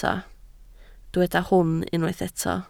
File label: "hwn", Welsh